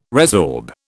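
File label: "resorb", British English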